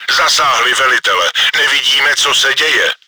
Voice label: commander killed, cz; Zasahli velitele, nevidíme, co se děje.; В командира попали, мы не видим, что происходит.; Czech; World of Tanks

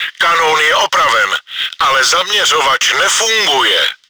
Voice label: gun functional, cz; Kanón je opraven, ale zaměřovač nefunguje.; Czech; Пушка восстановлена, но прицел не работает.; World of Tanks

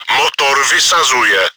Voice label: engine damaged, cz; Motor vysazuje!; Czech; Мотор перестаёт работать!; World of Tanks

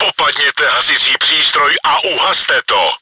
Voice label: fire started, cz; Popadněte hasičský přístroj a uhaste to!; Czech; Схватите огнетушитель и потушите!; World of Tanks

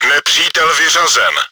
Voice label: enemy killed, cz; Nepřítel vyřazen.; Czech; Уничтожение врага игроком; World of Tanks

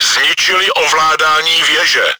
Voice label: turret rotator destroyed, cz; Zničili ovládání věže.; Czech; World of Tanks

